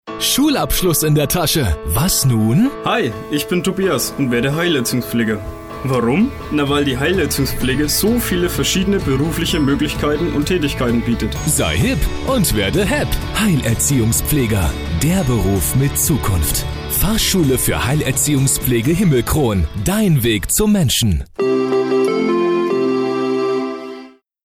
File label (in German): radiospot